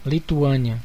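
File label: "lithuania", Brazilian Portuguese; gcompris; geography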